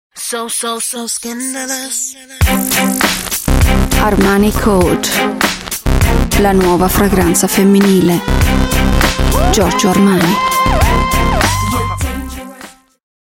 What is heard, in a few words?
Italian Female Ad; Armani Code